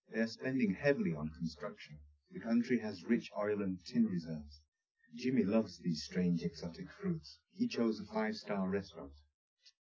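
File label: male speech; SiSEC10; underdetermined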